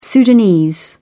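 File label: "sudanese", British English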